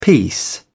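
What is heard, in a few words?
"peace", British English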